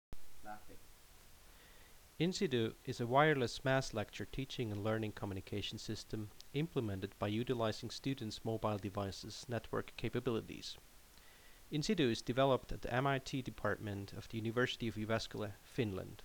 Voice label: Narration